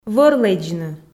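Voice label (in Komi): вӧр лэдзны, Komi, female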